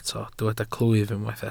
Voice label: "clwyf", Welsh

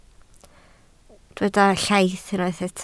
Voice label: "llaith", Welsh

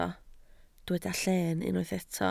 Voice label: "llen", Welsh

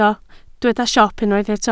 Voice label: "siop", Welsh

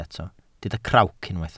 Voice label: "crawc", Welsh

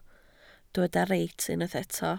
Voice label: "reit", Welsh

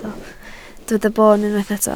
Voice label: "boon", Welsh

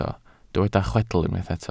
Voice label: "chwedl", Welsh

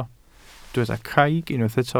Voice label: "craig", Welsh

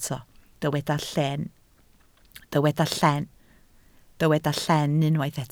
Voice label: "llen", Welsh

